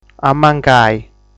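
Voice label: "Amancai", Sardinian word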